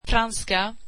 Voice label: swedish French

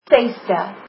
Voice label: sixth, afrikaans

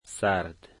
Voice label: "cold", Dari

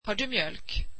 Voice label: swedish do you have milk

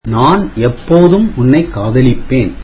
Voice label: tamil I will always love you

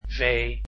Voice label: "v", dutch alphabet

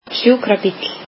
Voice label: "ambulance", Icelandic

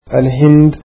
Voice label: arabic India

